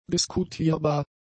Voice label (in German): "diskutierbar", German; adjectives